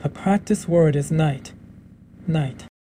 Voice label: "night", en; light vs night